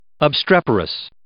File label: "obstreperous", English